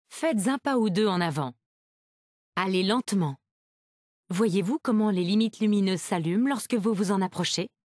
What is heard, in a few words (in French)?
lang fr ca, voiceovers